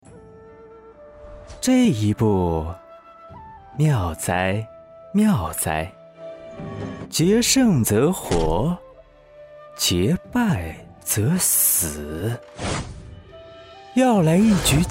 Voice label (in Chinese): 游戏, 淡定帅气男